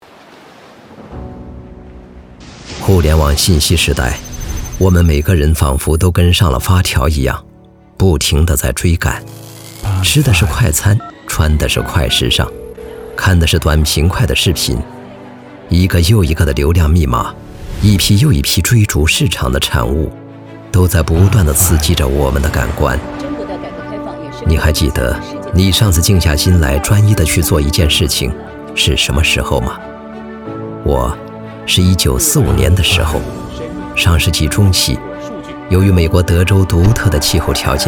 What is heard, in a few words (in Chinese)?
样音讲述感; 互联网信息时代